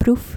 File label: "proef" dutch